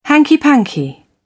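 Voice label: "hanky panky", British English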